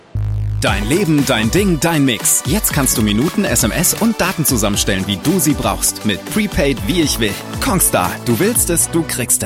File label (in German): tv spot; congstar